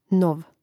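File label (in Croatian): "nov", Hrvatski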